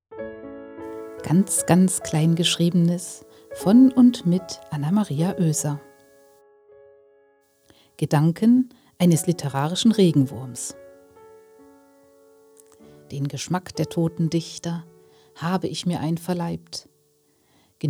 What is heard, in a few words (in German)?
Miniaturbuchlesung; literarischen Regenwurms